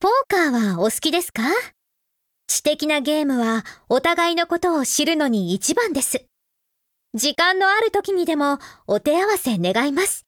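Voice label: affinity Japanese; Springfield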